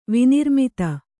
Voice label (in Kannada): "ವಿನಿರ್ಮಿತ", kannada